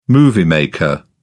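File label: "moviemaker", British English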